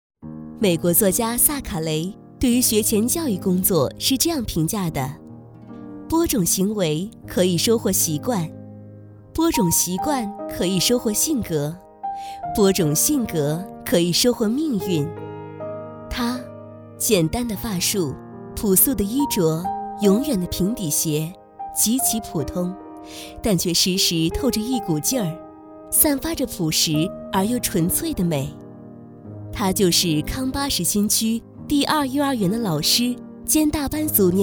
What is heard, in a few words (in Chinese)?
女 专题 亲切 人物介绍